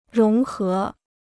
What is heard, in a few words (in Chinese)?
"融合", Chinese